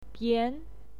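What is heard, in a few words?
"bian", Mandarin Chinese, second tone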